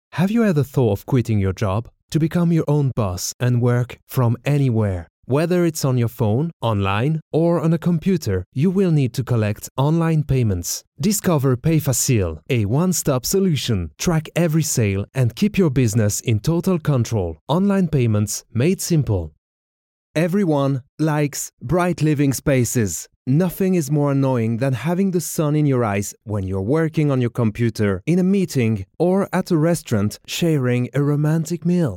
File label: french male commercial english